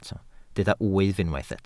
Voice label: "wyf", Welsh